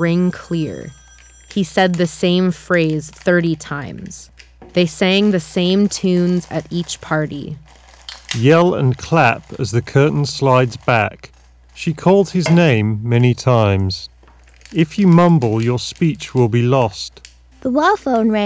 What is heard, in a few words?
Office microphone sample; Audeze LCD GX